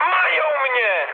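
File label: Polish aircraft destroyed mood high; War Thunder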